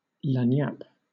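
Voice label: "lagniappe", British English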